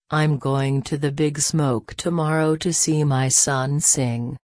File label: frase big smoke, Reino Unido; girias